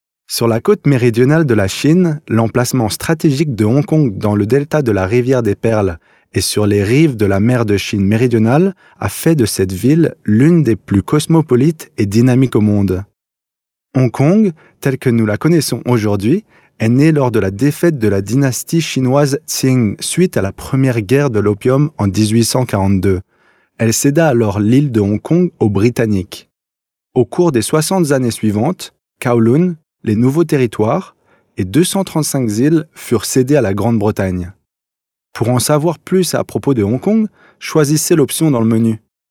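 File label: French male corporate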